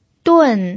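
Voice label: "dun", Mandarin Chinese, fourth tone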